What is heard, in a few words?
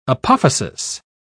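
"apophysis", British English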